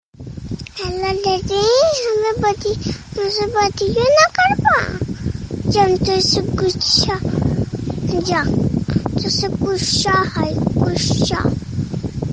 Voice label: hello daddy, ringtone